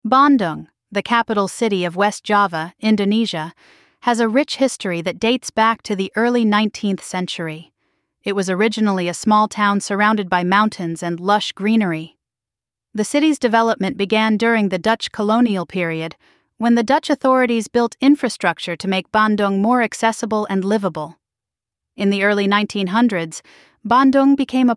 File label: Narrative Information; local history